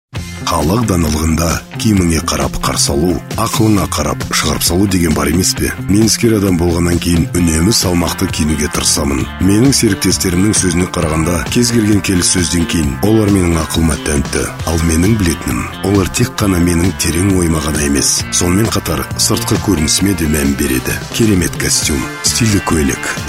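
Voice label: kazakh male commercial; voice demos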